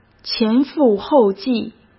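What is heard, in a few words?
"前赴后继", Mandarin Chinese; hsk; chinese-flashcards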